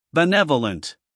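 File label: "benevolent", American English, male; everyone-can-use-english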